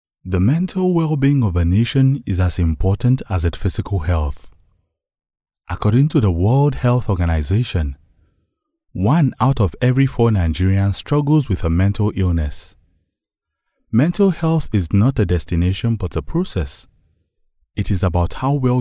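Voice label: WEST AFRICAN English, male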